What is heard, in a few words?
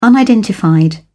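"unidentified", British English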